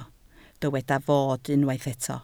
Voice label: "fod", Welsh

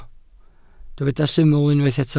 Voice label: "syml" Welsh

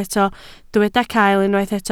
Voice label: "cael", Welsh

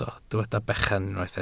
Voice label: "bechan", Welsh

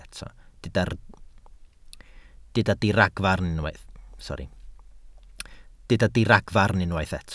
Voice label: "diragfarn" Welsh

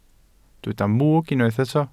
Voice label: "mwg", Welsh

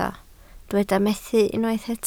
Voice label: "methu" Welsh

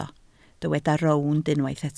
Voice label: "rownd", Welsh

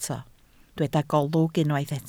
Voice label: "golwg", Welsh

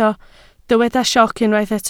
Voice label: "sioc" Welsh

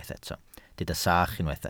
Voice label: "sach", Welsh

